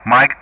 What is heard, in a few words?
"Mike", ENG; Ka-50; DCS